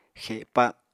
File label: "xepa", Apatani